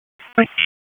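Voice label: Raleigh Police Northeast District Dispatch, p25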